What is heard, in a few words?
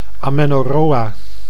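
"amenorrhoea", Dutch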